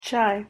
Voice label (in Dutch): "chai", Engels Romani; thee-in-andere-talen